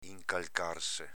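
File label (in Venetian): "incalcarse", Veneto dialect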